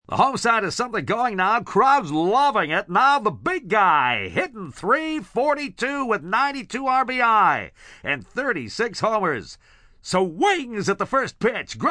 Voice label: Commentary Baseball, Broadcast, male commentator, baseball, sports, play-by-play; human voice speaking; VoiceBox